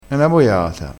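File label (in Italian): "boiata", vocabolario dialettale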